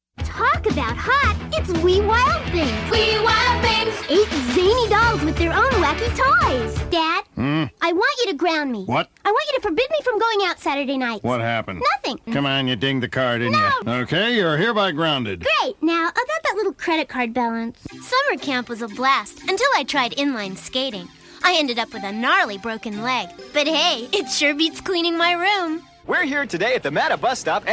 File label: Commercial